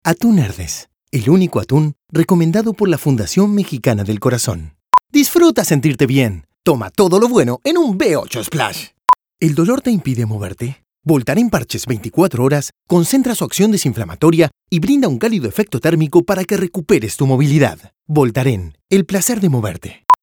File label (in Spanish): Castellano Neutro